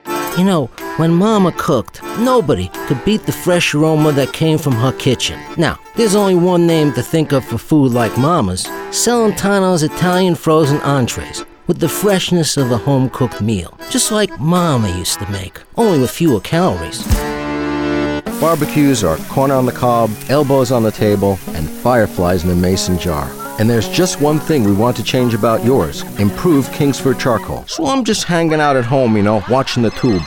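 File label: commercial, Voice-Over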